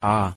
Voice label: "a" pronunciation de

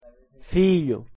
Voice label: pronunciation pt "filho"

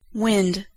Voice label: "wind" noun

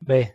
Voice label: "b" pronunciation es

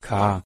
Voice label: "k" pronunciation de

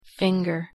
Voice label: "finger", en

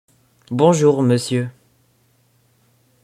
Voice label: "bonjour monsieur", fr

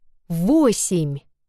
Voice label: numbers in Russian 8

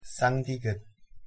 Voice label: "ㄸ", ko

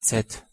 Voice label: "z" pronunciation de

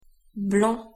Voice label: pronunciation fr "blanc"

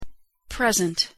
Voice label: "present" noun adjective

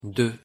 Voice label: "deux", fr

